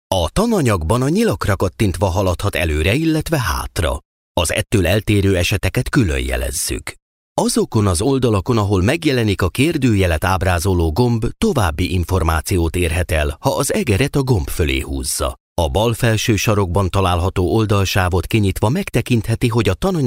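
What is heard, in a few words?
Hungarian, male, e-learning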